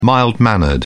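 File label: "mild-mannered", British English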